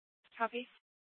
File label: Mesa PD Central Dispatch, scanner